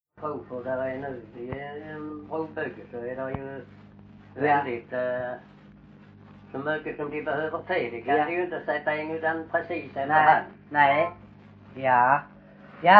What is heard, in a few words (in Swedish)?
Bosjökloster SK, dialekter; Folkminnen